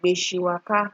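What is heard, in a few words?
"gbeshiwaka", Nigerian Pidgin, female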